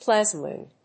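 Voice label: "plasmoid", English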